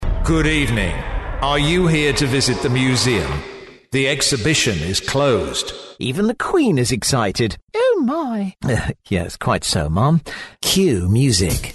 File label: character